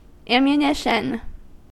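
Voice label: "ammunition", US English; pronunciations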